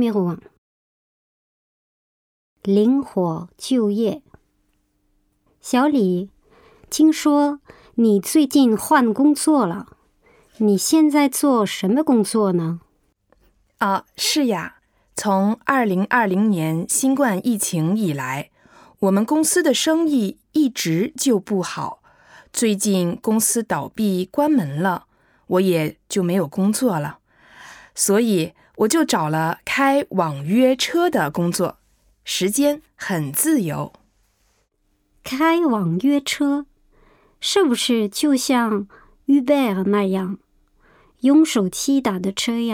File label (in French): Chinois